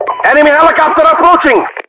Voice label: enemy heli, voiceovers